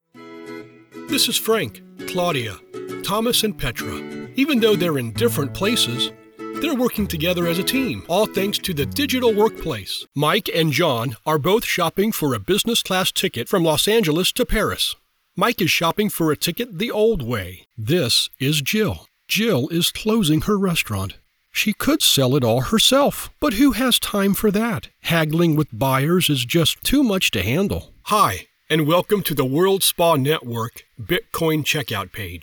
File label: Explainer